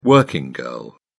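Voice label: "working girl", British English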